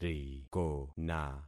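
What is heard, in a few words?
"rikona", concatenated syllables; Affixing experiment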